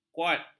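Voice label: "kuart", Malay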